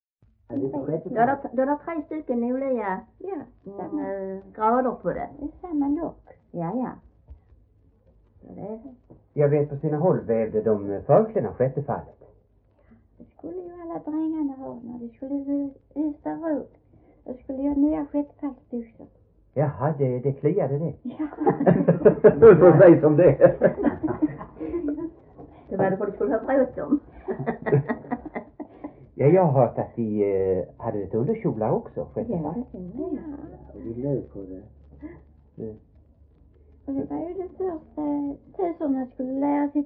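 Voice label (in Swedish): Äspö Skåne, dialekter svenska sagor; Folkminnen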